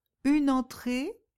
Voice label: "entrée", French